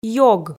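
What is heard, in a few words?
"ёг", Komi, female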